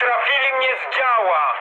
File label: damaged aa mood high, speech pol; War Thunder